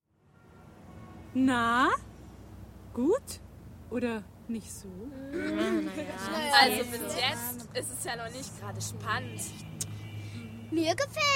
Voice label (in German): Sprecherszene Teenies